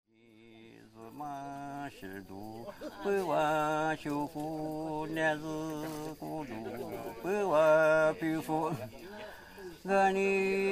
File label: Lisu